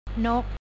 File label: bird, thai; นก